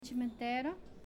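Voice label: "Cimitero", Italian, female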